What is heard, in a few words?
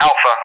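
"Alpha", English, Alphabet; DCS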